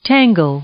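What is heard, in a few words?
"tangle", English, female; verb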